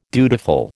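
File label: "dutiful", American English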